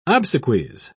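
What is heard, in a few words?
"obsequies", British English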